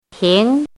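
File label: "ting2" Mandarin Chinese, rising tone; chinese-voice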